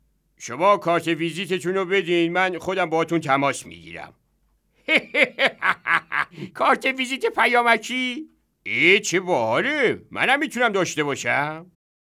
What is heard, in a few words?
narrator